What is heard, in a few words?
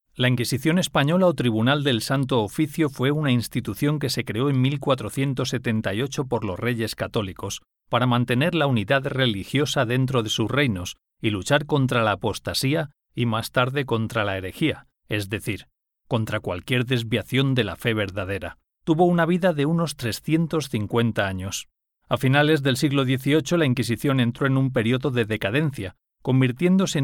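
Spanish Spain, male, voice over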